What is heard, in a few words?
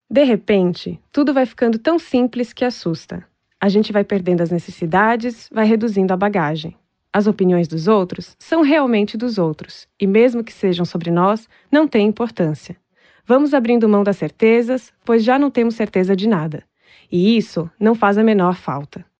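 Portuguese Brazil, female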